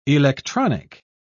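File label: "electronic", English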